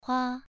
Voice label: "hua2", pronunciation